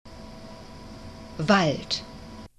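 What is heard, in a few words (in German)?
"wald", German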